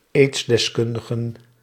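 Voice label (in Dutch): "aidsdeskundigen", Dutch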